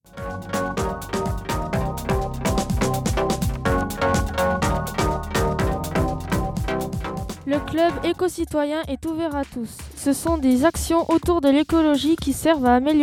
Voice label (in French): annonce club eco citoyen